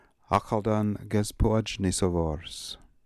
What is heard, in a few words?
"Akhaldangezpoodjnisovors", Beelzebub pronounciations; Fourth Way